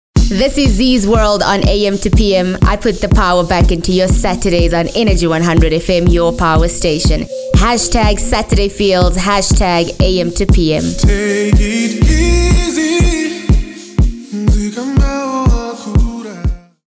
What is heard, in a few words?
Promo; Am to Pm Promo; Energy 100